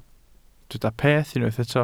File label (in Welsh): "peth" Welsh